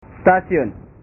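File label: "setasiun", Indonesian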